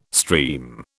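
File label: "stream", British English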